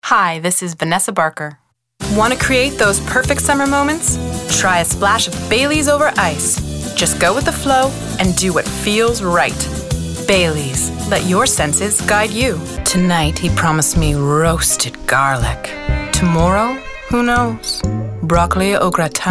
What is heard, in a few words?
commercial female